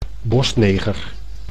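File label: "bosneger", Dutch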